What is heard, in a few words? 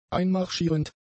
"einmarschierend", German; adjectives